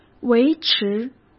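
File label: "维持", Mandarin Chinese; chinese-flashcards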